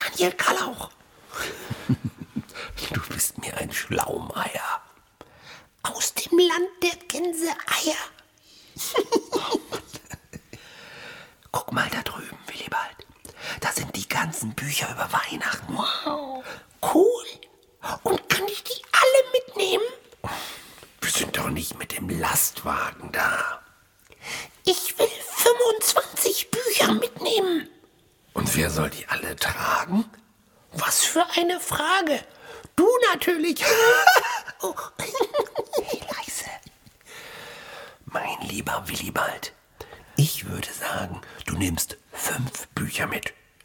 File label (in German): hoerspiel